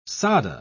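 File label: "sadhe", English, British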